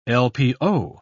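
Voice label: "lpo", British English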